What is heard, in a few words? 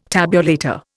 "tabulator", Indian